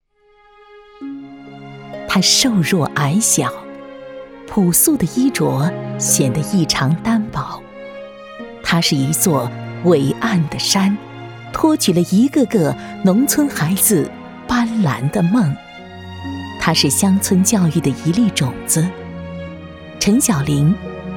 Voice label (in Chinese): 女 专题人物